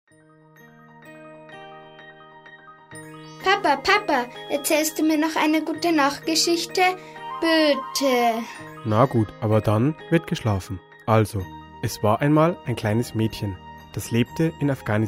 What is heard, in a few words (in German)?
Werbung Kinderhilfe; Maerchen